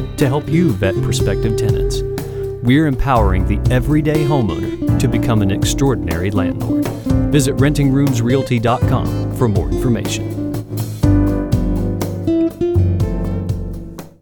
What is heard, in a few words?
Real Estate VO